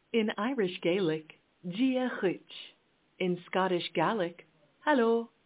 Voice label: Gaelic, hello